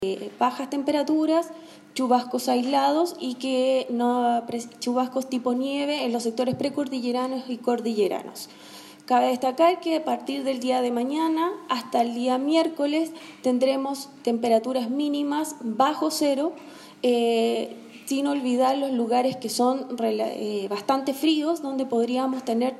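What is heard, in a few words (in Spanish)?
cuña meteorologa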